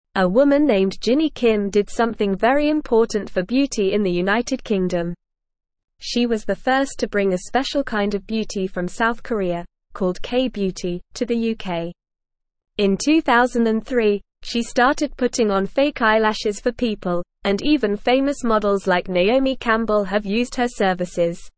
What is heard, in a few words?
English Lower Intermediate NORMAL Reading; Jinny Kim Bringing K Beauty to the UK; English Newsroom